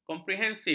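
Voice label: "komprehensif", Malay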